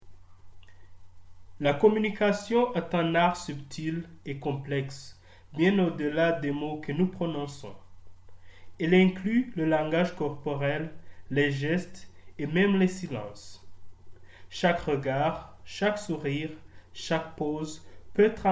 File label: african french male; African French-speakers